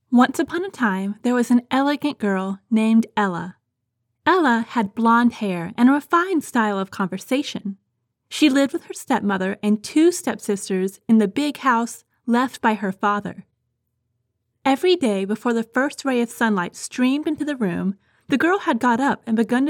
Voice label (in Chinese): 外籍女英 外语 美式英语 旁白 讲述